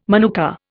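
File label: "manuka", Indian English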